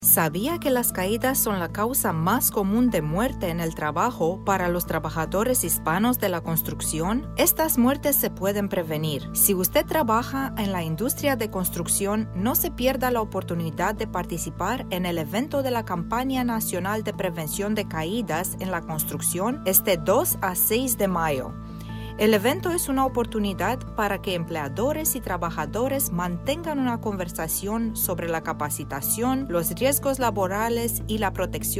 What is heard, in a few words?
psa spanish; stand down; stop falls